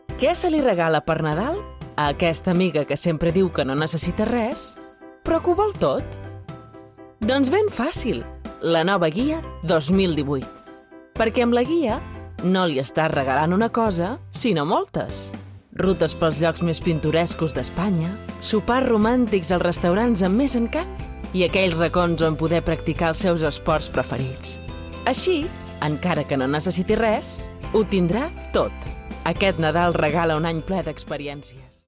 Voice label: Catalan; AKG C214 mic; Scarlett 212 Focusrite; Audio Technica ATH-M50xWH Studio Monitor Professional